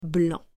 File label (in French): "Blanc", French